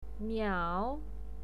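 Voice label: "miao" third tone, pinyin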